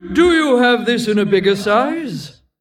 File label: Female Tol'vir Play